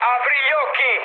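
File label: Italian player friendly fire mood med, speech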